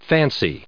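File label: "fancy", US English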